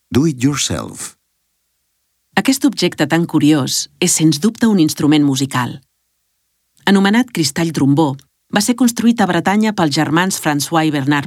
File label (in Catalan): audioguia catala; delamusica